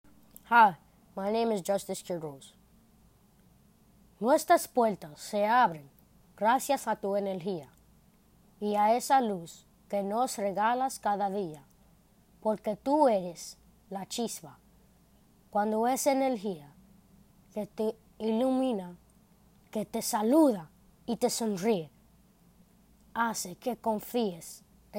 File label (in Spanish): SPANISH